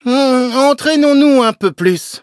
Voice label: defeat, fr; cookierunwiki

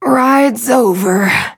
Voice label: defeat, en; Cookie Run

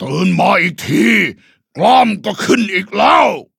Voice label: level up, th; cookierunwiki

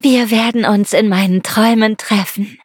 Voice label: levelup, de; cookie run

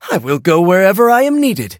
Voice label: levelup, en; cookie run

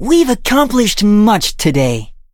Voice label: victory, en; Cookie Run

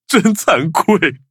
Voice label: defeat, zh-hant; cookie run

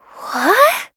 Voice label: defeat, en; cookie run

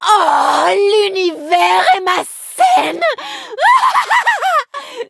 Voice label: upgrade, fr; cookie run

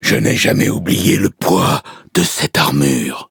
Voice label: level up, fr

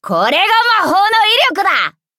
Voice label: victory, ja

